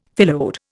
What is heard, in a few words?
"phyllode", Indian; Dictionary